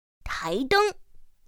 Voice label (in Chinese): "táidēng", pinyin; pinyin2; pinyinji